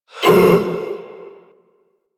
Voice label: Pain; Warframe; Generic Missions; Stalker